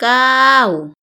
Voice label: nine, Thai; thai numbers